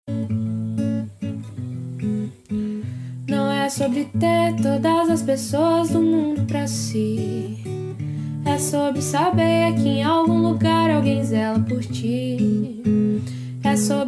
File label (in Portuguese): ANIV CLIENTE M, telemensagem aniversario diversos